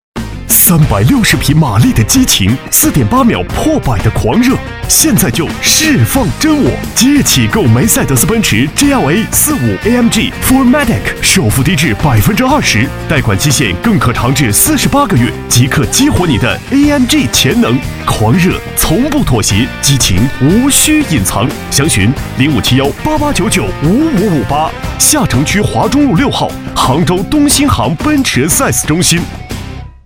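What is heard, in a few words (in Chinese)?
【汽车广告】男-大气时尚; 奔驰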